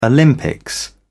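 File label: "olympics", British English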